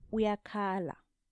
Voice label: "Uyakhala", ndebele

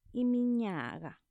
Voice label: "Iminyaga", ndebele

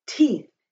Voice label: Teeth, shona

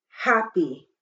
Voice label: Happy, shona

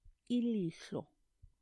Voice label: "Ilihlo", ndebele

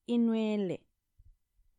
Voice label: "Inwele", ndebele

